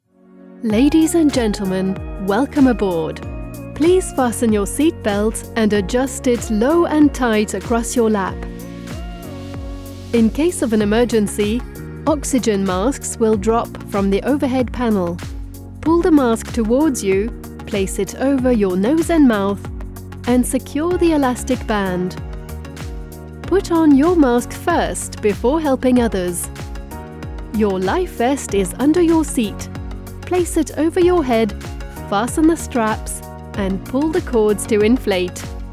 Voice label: english british announcements, English (British) voice actor